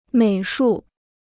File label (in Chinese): "美术", Chinese